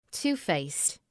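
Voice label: "two-faced", English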